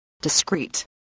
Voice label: "discreet", english spelling